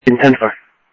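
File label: Sheriff East County, scanner; ThompsonPeakScot; MCSO - EAST; Sheriff-EastCnty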